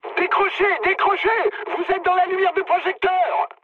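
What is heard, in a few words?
searchlite, mood high, French; War Thunder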